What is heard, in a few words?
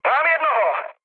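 player killed enemy, Czech, mood low; speech; War Thunder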